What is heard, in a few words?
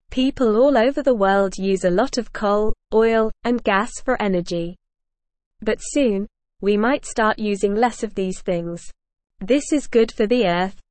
English Lower Intermediate NORMAL Reading; Using Clean Energy Good News for the World; English Newsroom